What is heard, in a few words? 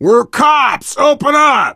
world small town npc bellman, player survivor voice biker